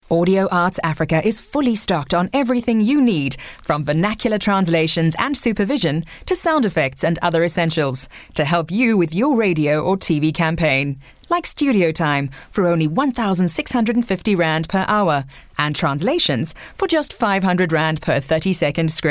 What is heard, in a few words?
English Retail